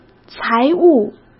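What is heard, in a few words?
"财务", Mandarin Chinese; hsk; chinese-flashcards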